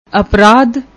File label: "apraadh", Punjabi; ਅਪਰਾਧ